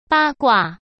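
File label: "八卦", Mandarin Chinese; chinese flashcards; hsk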